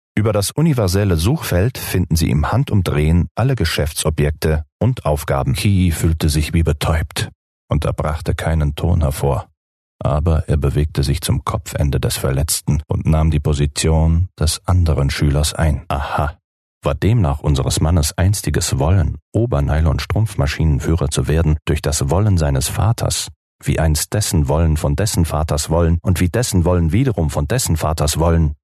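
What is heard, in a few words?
German, male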